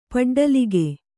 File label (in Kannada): "ಪಡ್ಡಲಿಗೆ", Kannada